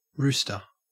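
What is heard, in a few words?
"rooster", Australian English; pronunciations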